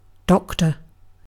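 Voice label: "doctor", British English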